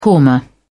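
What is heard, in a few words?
"korma", British English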